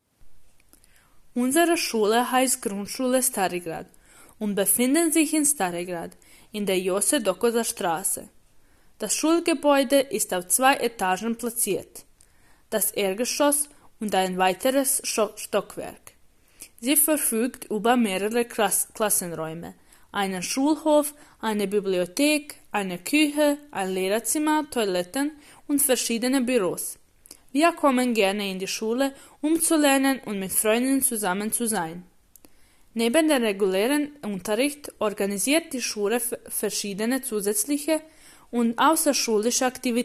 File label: zvuk skola Njem